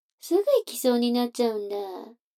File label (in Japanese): 女の子 台詞